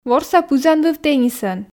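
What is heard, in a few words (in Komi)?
"ворса пызанвыв теннисӧн", Komi, female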